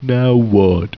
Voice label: annoyed; war2